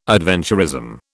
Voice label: "adventurism", British